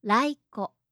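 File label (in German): "laiko", japanische Mädchennamen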